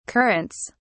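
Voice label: "currants", British English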